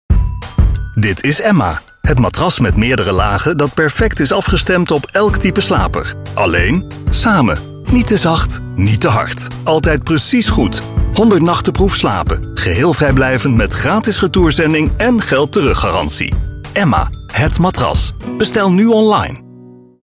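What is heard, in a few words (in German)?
Dutch werbung, voicesamples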